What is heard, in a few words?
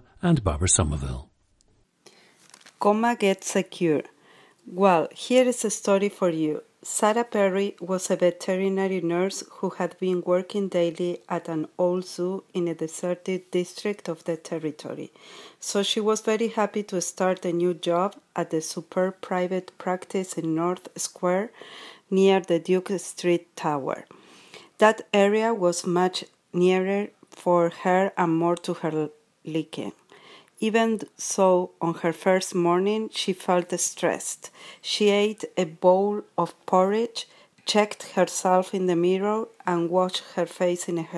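argentina, dialects of English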